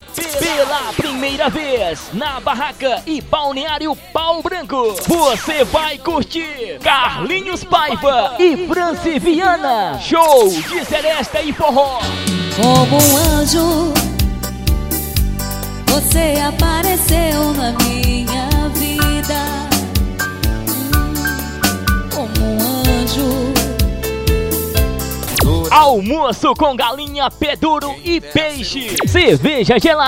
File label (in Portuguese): vinheta locutor